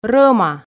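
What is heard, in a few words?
"рӧма", Komi, female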